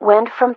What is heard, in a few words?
Wind From, ATIS